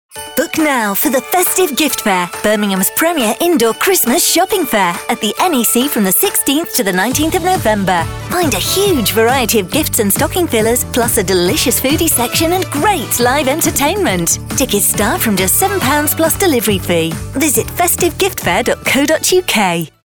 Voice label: Radio ad; Greatest Hits radio; Festive Gift Fair